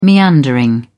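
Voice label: "meandering", British English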